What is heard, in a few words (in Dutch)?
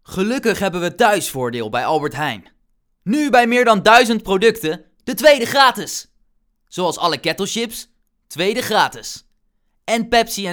Stemopname advertentie; stem-acteur